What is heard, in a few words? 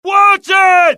alert, dialogue generic US; mohaa